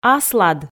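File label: "аслад", Komi, female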